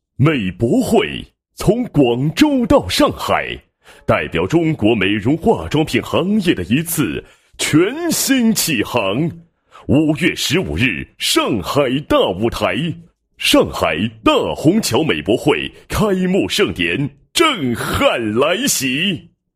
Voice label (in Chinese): 男 开幕词 美博会开幕盛典震撼来袭; 上海大虹桥美博会